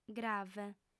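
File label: "grave", female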